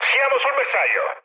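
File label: Italian near waypoint mood high, speech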